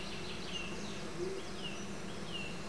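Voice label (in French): "oui", français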